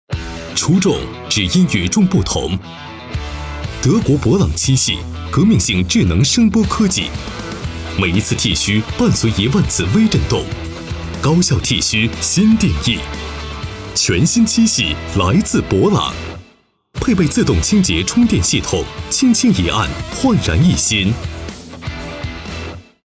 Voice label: 男, 广告, 剃须, 年轻时尚; 博朗

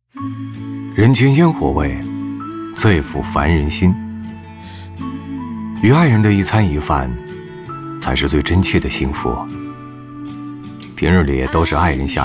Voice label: 男, 广告, 口语素人

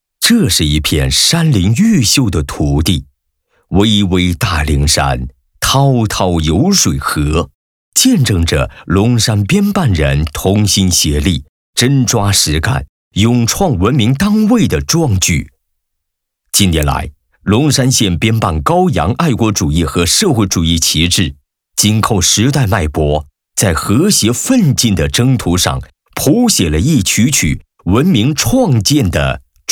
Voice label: 男 专题 浑厚 磁性 党政; 龙山县

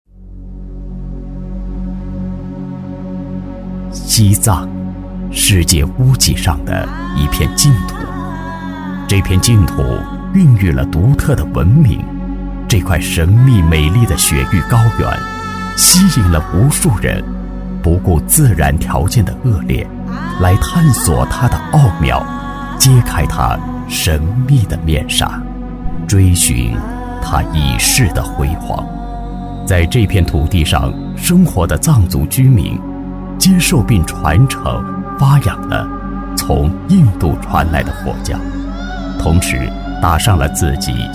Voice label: 男; 西藏

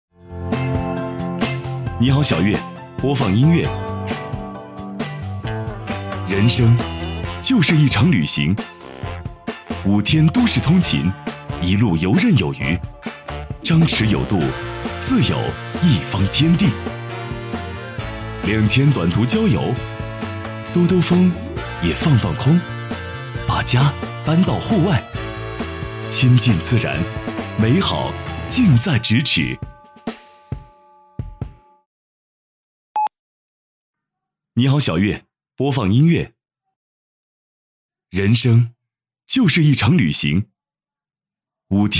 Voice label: 男; 汉兰达

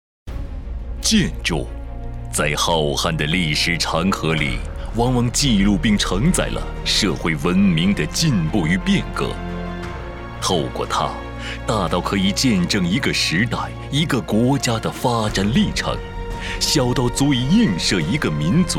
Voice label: 男, 专题, 大气, 舒缓; 中铁一局二公司

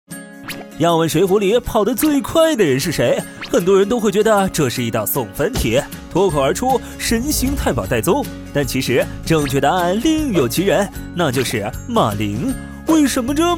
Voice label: 男 年轻 明快 轻松自然; 飞碟; 水浒传

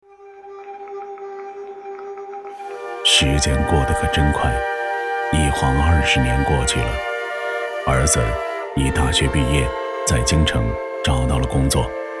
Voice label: 男 独白 低沉讲述

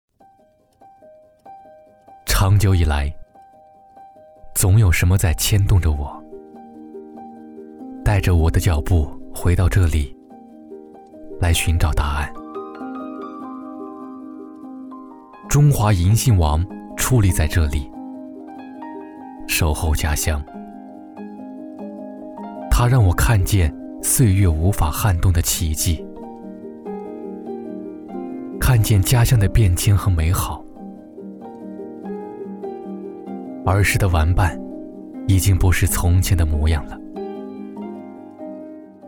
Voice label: 男 走心 独白 城市宣传; 广顺

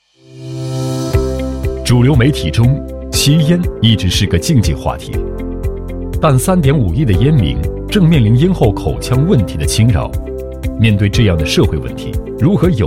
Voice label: 男 介绍 科普 严谨 稳重; 漱口水

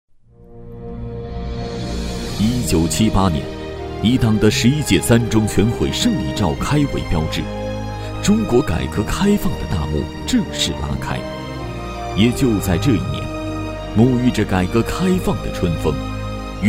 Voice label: 男 学校专题 辉煌回顾

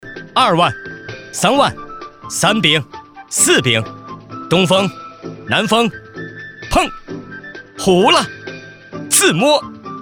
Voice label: 男 棋牌 普通话男; 腾讯麻将

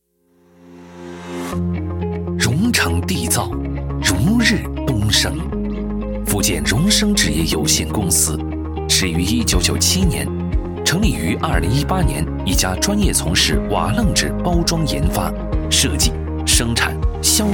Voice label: 男 企业宣传 专题 大气; 福建榕氏纸业

